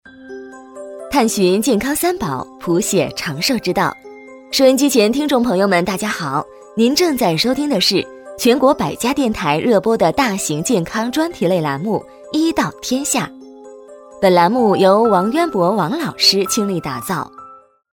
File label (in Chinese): 女国 动画 角色 医药讲座主持人